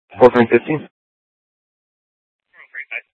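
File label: Police Department North Dispatch, scanner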